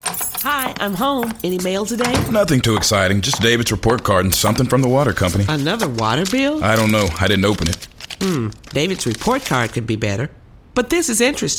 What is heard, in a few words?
english; report card